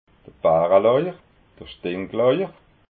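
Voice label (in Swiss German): "baralauich", Alsatian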